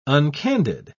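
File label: "uncandid", British English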